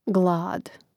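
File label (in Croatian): "glad", hrvatski; mreznik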